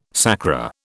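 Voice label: "sacra", British English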